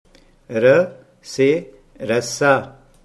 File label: "rassa", Hindi